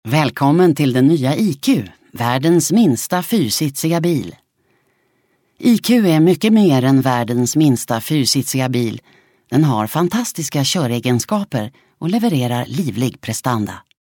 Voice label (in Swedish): svensk kvinna